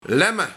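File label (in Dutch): "Lemme", Luik, Geit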